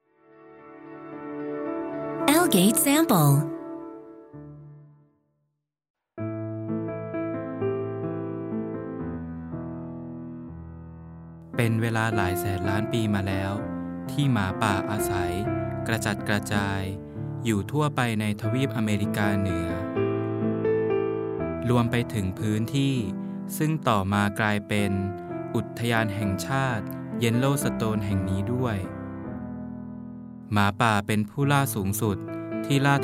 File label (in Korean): 태국 남 차분한 톤